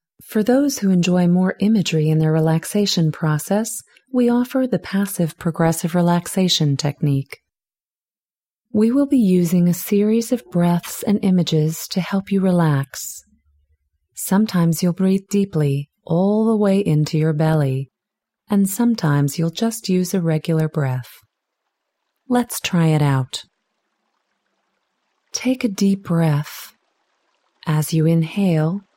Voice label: Relaxation Exercise Passive Progressive Relaxation